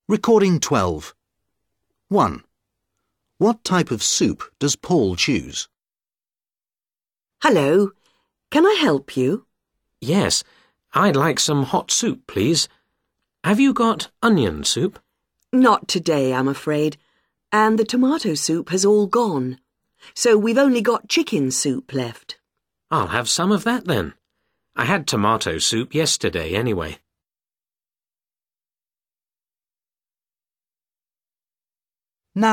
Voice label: dialog